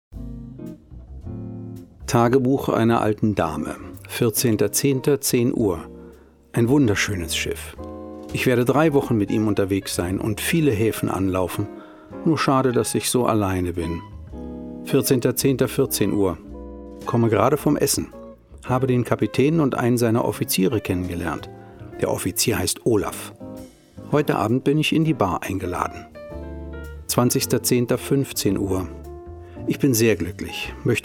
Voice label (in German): Lesung; Tagebuch einer alten Dame